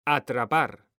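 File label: "ATRAPAR", Spanish, male; mekanta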